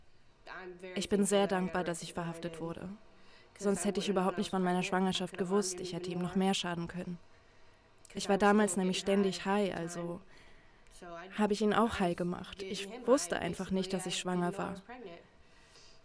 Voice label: Drama